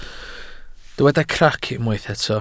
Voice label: "crac", welsh